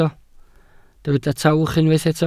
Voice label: "tawch", Welsh